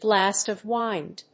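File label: "blast of wind", English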